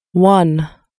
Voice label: "one", inglese; numeri in inglese